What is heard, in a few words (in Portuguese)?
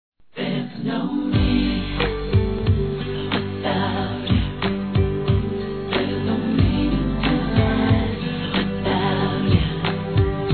RECONCILIAÇÃO M; Telemensagens